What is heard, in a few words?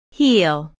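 "heel", English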